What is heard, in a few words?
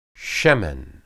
"shemen", Hebrew